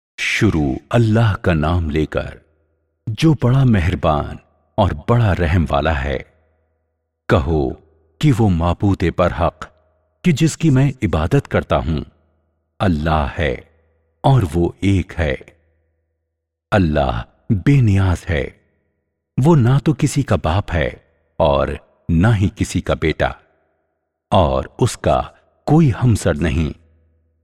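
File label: Urdu male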